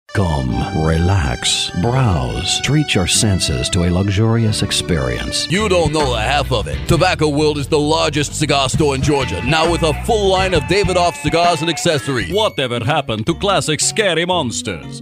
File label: Character; Voice Over for Animation and Cartoon Characters